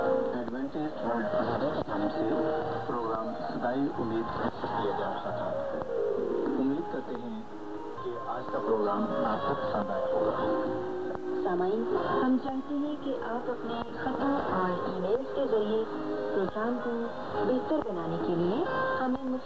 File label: 11800 kHz urdu; Adventist World Radio; FT2000; dipolo